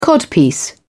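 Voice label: "codpiece", English, British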